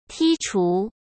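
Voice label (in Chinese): "剔除", Mandarin Chinese; hsk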